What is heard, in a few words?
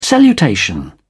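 "salutation", British English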